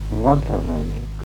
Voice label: "wathaminyuk" slow; AIATSIS

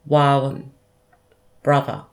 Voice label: "wawin" brother

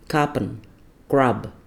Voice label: "kapun" grub

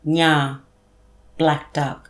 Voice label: "ngare" blackduck

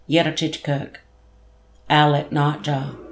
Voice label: "yerratety-kurrk" owlet nightjar

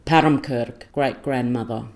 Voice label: "parram-kurrk" great grandmother

